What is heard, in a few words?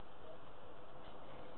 Little girl mumbling